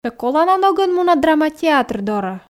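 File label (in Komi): ме колана ногӧн муна драма театр дорӧ, Komi, female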